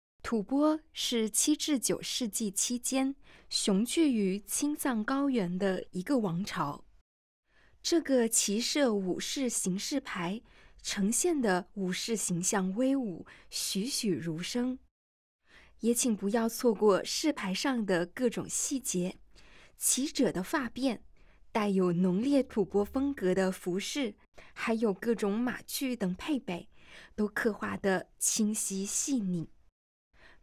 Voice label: Putonghua